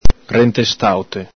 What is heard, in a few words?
"rentestaute", Venetian